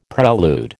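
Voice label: "prelude", American English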